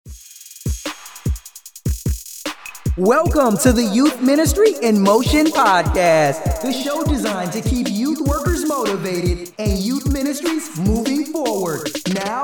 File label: Podcast Intro with music and effects; Ministry in Motion